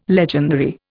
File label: "legendary", Indian